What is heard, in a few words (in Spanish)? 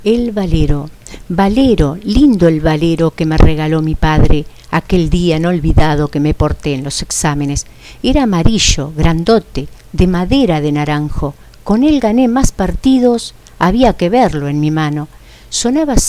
balero poema